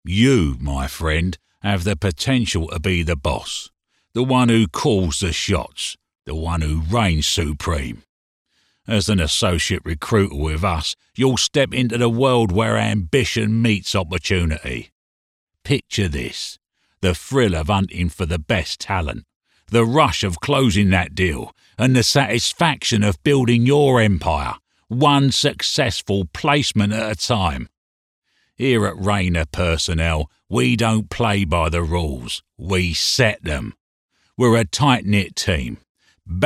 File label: gangster